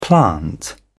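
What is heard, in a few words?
"plant", British English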